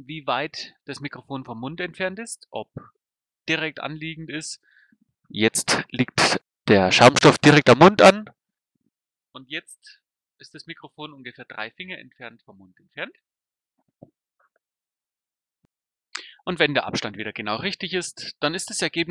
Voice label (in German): Headset erste Aufnahme